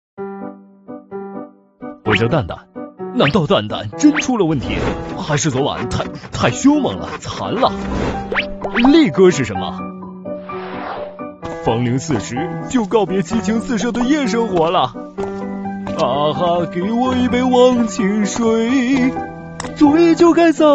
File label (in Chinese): 男 角色